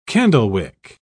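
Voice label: "candlewick", British English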